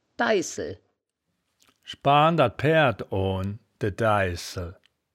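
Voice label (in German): "deihsel", wittlicher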